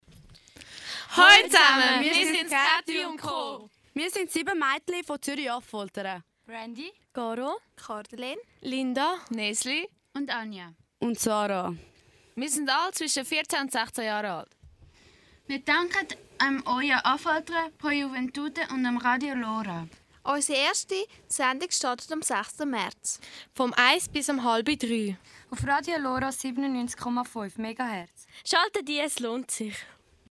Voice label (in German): werbung; kaettyundco